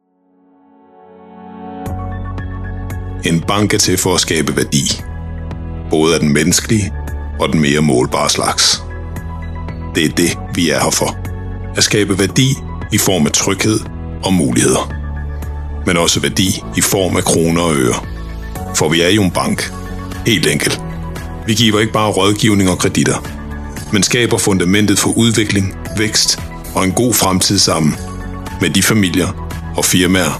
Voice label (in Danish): Deep Danish Voiceover